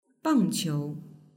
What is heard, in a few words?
"bangqiu", Mandarin; sports